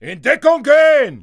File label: fear, dialogue; mohaa